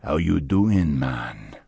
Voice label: Troll Male Greeting

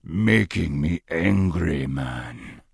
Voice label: Troll Male Standard NPC Pissed

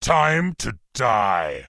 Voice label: Grunt Yes Attack

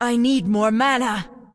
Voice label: Undead Female error no mana; Scourge

